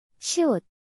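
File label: "ㅅ", Korean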